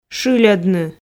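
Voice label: "шыльодны", Komi, female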